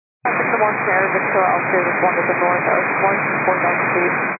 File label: 122 925MHz, scanner radio